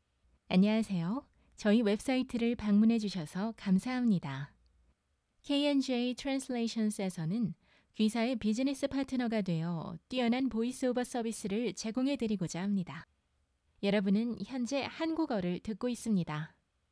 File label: korean female